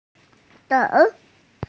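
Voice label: "gou3", Mandarin Chinese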